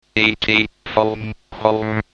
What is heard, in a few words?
speech processor "et phone home"